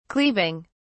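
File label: "cleaving", English, uk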